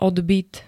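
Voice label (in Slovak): "odbyt", Slovak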